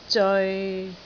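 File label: "zeoi6", Cantonese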